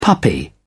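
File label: "puppy", British English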